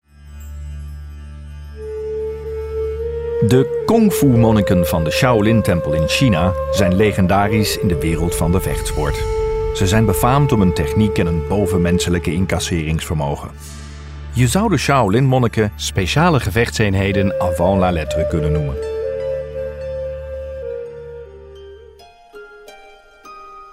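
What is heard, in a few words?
Dutch, Corporate; GoLocalise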